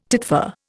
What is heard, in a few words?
"titfer", Indian